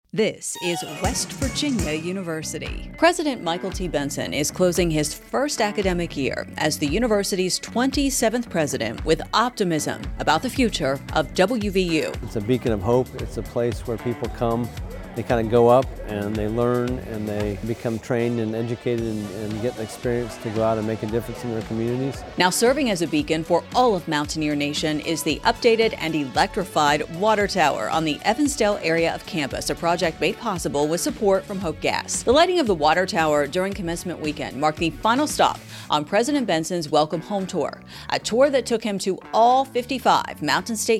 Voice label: radio spot; tower lighting; wvutoday on the radio